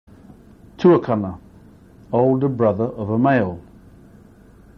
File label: "tuakana", Maori, male